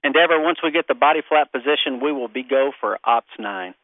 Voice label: NASA body flap position go for opt 9, space shuttle communications; VOCALS and SPOKEN WORD